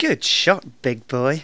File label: "excellent", Speech; Worms Armageddon